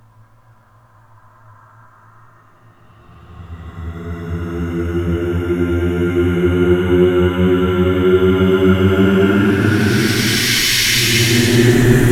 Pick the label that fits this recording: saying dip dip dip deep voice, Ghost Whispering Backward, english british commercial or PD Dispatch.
Ghost Whispering Backward